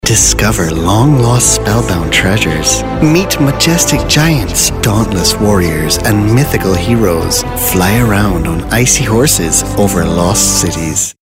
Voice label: english international audiobooks

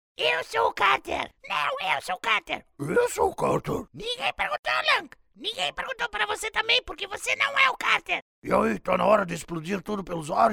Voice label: portuguese brazilian animation

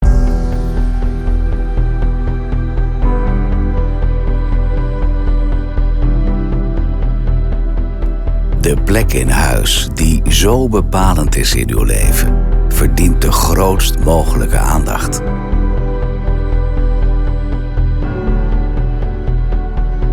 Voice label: dutch movie trailers

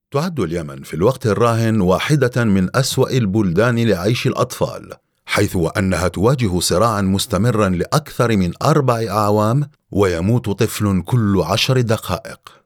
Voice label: arabic commercial

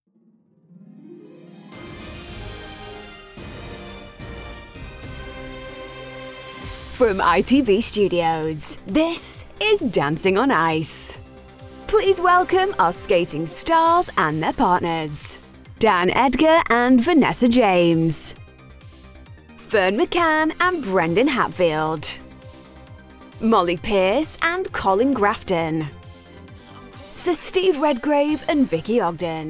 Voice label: english british announcements

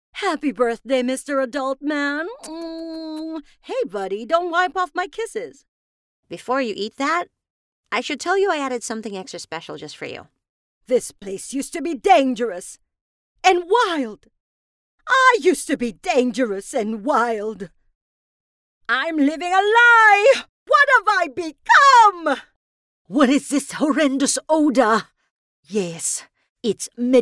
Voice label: english animation

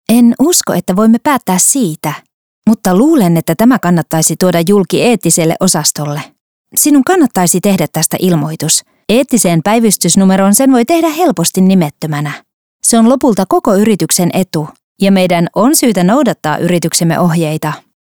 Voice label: finnish narration

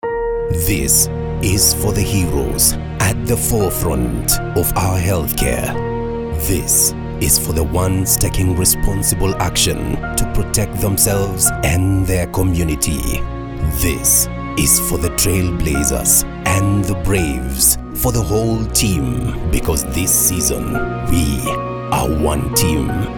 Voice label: swahili documentaries

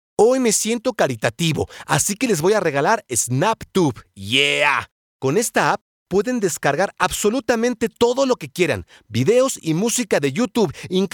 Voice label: spanish mexican commercial; Mexico

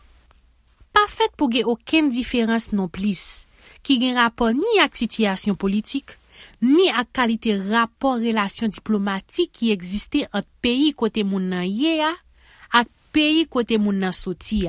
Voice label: haitian creole commercial

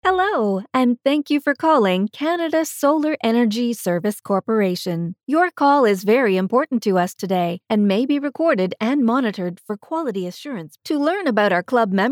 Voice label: english canadian ivr

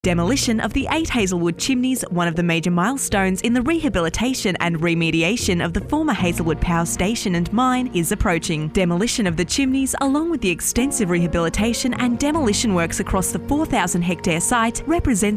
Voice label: english australian corporate videos

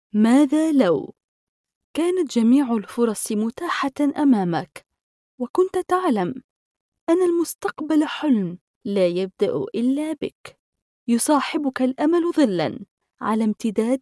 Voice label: arabic promos

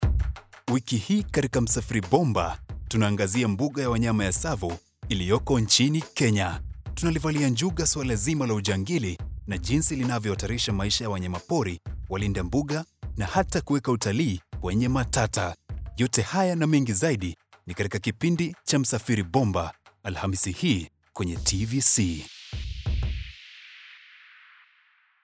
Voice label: swahili tv shows

